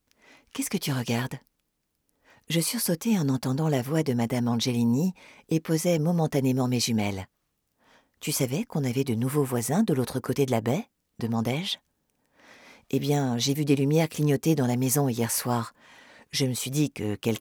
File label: audiobook